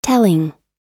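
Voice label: "telling", US English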